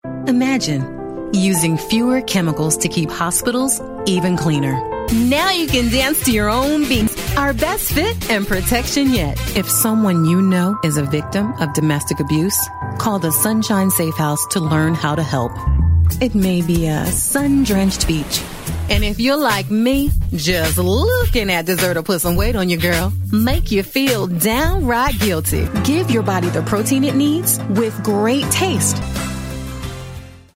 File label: 30s-40s Female